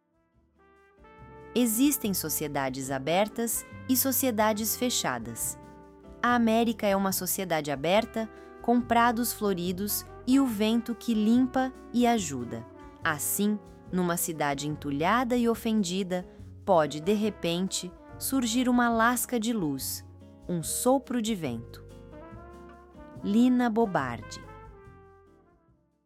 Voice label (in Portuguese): narracao; foto citacao existem sociedades